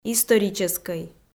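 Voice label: "историческӧй", Komi, female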